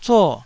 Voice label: "zuo4", taiwanese

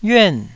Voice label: "yuan4", taiwanese Syllables

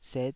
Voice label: "set", Taiwanese

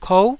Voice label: "kou", Taiwanese

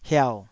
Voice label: "hiau3", Taiwanese syllable

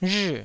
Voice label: "rhii4", taiwanese

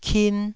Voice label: "kin1", taiwanese syllables

